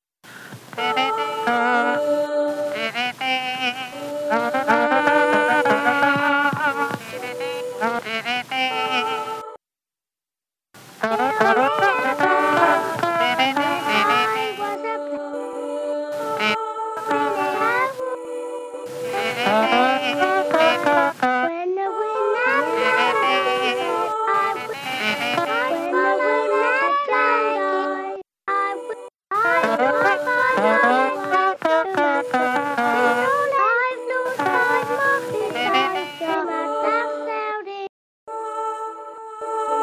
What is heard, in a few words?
Telephone Recording; Interactive and generative sound installation; The Big Cellar Collective; Porthmeor